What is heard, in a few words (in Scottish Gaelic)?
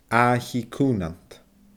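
"aaicheidh cuumhnant", Scottish Gaelic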